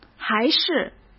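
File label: "还是", Mandarin Chinese; chinese-flashcards; hsk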